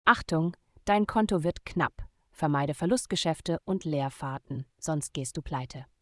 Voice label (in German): balance low, voice de; logistik